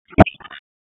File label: Raleigh Police LE Ops, p25; wake